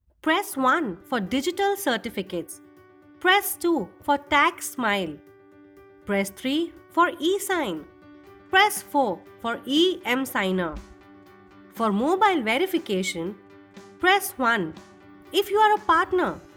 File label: Eng IVR